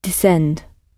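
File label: "descend", British English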